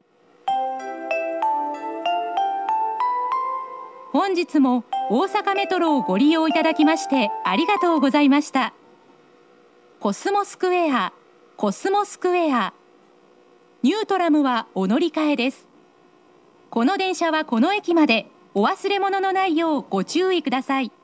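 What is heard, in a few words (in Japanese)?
大阪メトロ中央線車内放送, コスモスクエア到着; コスモスクエア到着(コスモ止まり)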